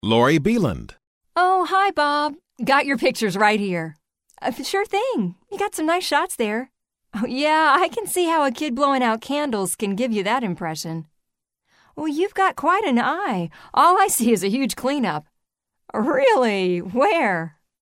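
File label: girl, voice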